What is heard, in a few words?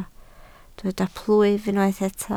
"plwyf", Welsh